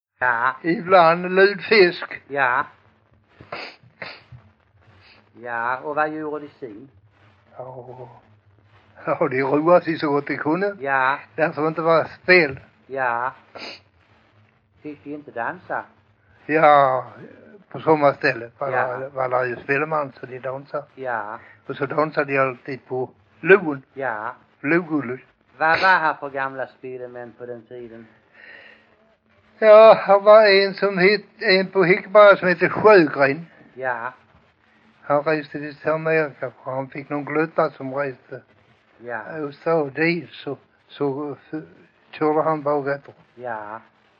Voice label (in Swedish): Gödelöv, dialekter; Folkminnen